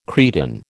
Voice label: "cretin", American